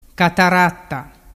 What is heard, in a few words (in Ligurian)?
"cataratta", dialettu